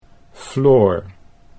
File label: "Floor", Dutch